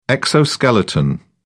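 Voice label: "exoskeleton", British English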